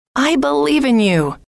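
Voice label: i believe in you, female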